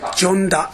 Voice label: "jonda", minamiyamagata